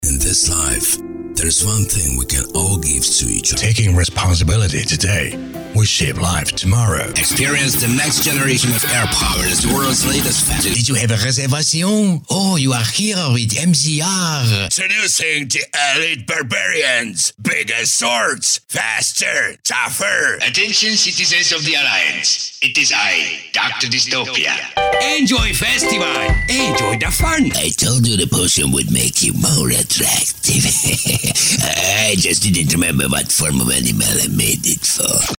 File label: English commercial, Hungarian voice actor